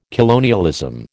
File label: "colonialism", American